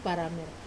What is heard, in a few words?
"paramer", New Guinea rosewood; wamesa